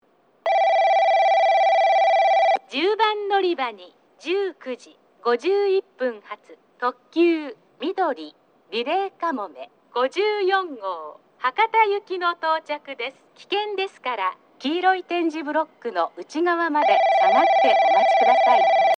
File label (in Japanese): 10番のりば接近放送; みどり・リレーかもめ54号 博多行き; takeo onsen